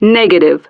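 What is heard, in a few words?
"Negative", English; Betty; DCS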